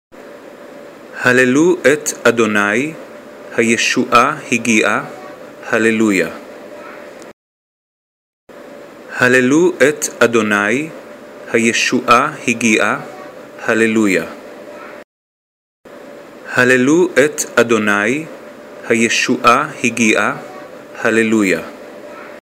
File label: hebrew